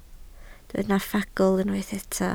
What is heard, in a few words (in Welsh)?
"ffagl", Welsh